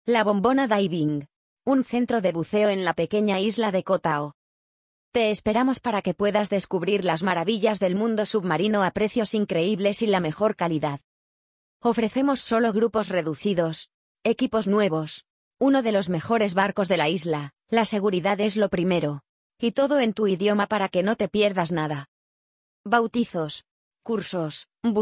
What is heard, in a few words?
Spanish